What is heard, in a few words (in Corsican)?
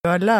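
"ballà", Moita Verde (nord)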